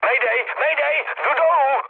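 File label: aircraft destroyed, Czech, mood high; War Thunder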